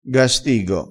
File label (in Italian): "gastigo", crespinese